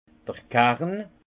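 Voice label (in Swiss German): "karn", alsace Haut-Rhin; vin; pépin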